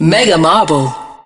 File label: announcer; mega marble; marble blast ultra